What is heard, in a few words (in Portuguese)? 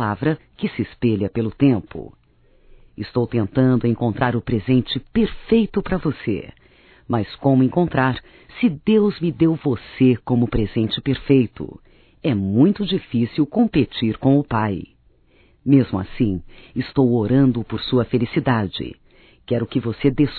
ANIV ROMâNTICO, Telemensagens, Evangelico, fem; Telemensagens 2017